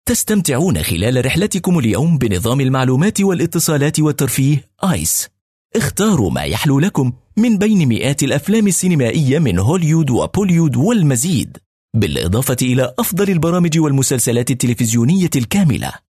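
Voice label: Arabic, male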